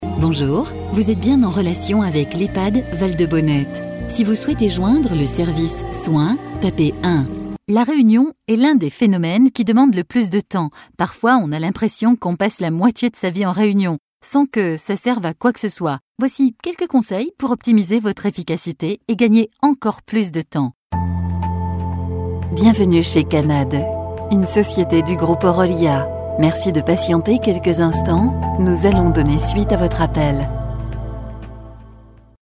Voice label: french telephone